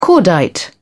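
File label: "cordite", British English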